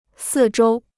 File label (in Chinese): "四周", Chinese; sì zhōu; all around